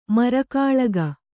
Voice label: "ಮರಕಾಳಗ", Kannada

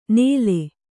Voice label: "ನೇಲೆ", Kannada

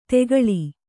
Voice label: "ತೆಗಳಿ", Kannada

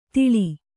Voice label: "ತಿಳಿ", Kannada; tiḷi

